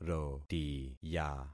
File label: "rotiya", concat syllables; Affixing experiment